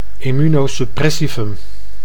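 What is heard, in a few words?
"immunosuppressivum", Dutch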